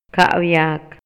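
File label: Maya, male; INALI; MayaYuc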